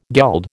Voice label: "geld", American